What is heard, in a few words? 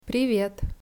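"privet", Russian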